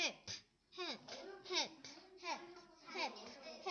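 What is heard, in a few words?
"hip", spoken word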